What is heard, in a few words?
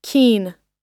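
"keen", English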